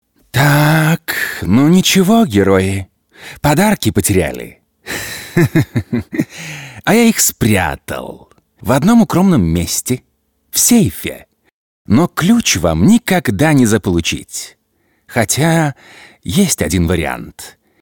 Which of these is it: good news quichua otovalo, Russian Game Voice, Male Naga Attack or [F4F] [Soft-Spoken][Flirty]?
Russian Game Voice